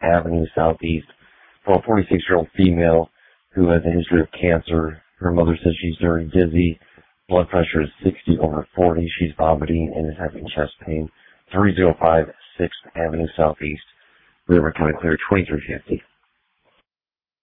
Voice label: Ambulance, dispatch

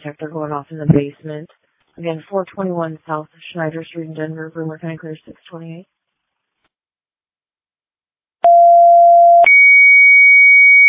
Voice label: Fire, dispatch

